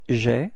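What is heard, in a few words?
"avoir je", French; frans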